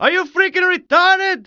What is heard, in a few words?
male are you retarded; cs2d; cityrp; pedestrian